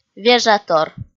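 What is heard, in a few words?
"вежатор", Komi, female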